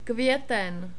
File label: "kveten", czech